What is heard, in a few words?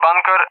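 "bunker", English, RUSSIA; Target; DCS; Allied Flight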